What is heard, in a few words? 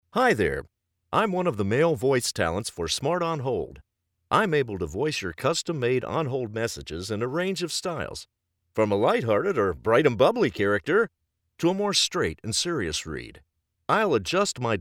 MALE; Voice Over artists; Smart On Hold Messages